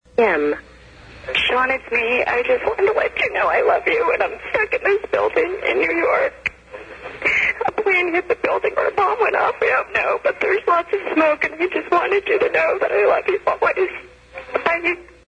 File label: Phone Call; 911; WTC Victim